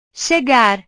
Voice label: "chegar", portugues; portugues/verbos

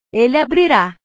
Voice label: "ele abrira", portugues; verbos

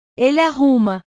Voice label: "ele arruma", portugues

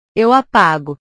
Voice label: "eu apago", portugues

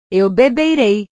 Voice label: "eu beberei", portugues

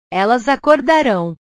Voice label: "elas acordarao", portugues

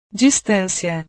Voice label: "distancia", portugues

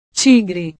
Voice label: "tigre", portugues

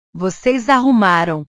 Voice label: "voces arrumaram", portugues; Ustedes ordenaron

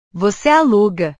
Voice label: "voce aluga", portugues; Tú alquilas

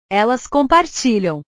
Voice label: "elas compartilham", portugues; compartilhar; portugues/verbos

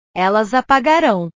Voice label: "elas apagarao", portugues

